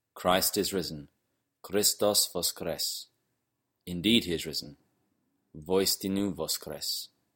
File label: Christ Is Risen Church Slavonic